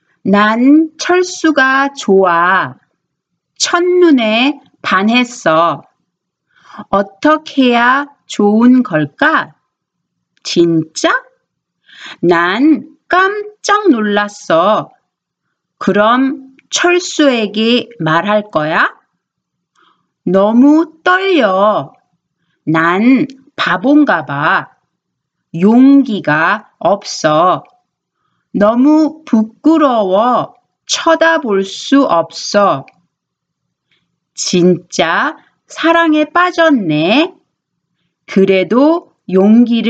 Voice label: Conversation